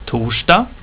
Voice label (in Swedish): "torsdag", Swedish